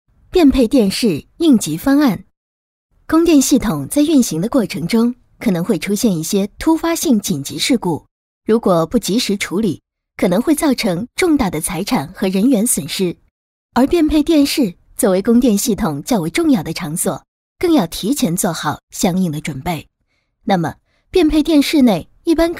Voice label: 女 课件 变配电室应急方案; 国语女声配音

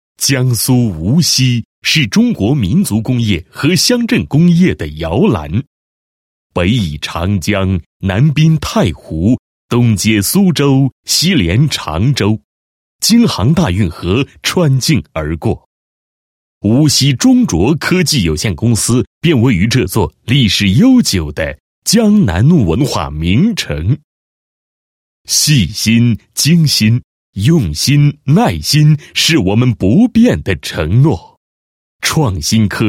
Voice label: 男 企业 科技有限公司宣传; 中卓科技有限公司宣传

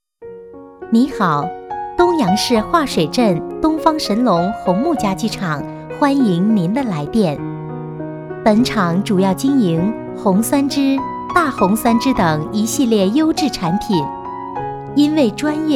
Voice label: 彩铃, 国语女声配音

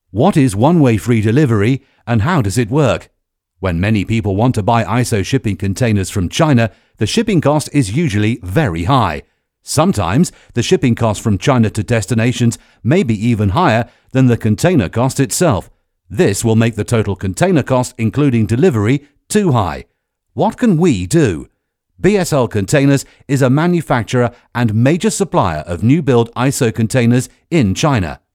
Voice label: 深度配音

